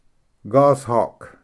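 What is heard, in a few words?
"goshawk", English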